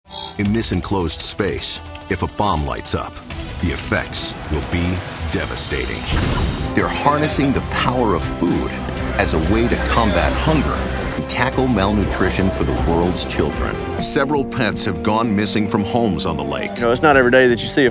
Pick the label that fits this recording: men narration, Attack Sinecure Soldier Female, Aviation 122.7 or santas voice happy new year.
men narration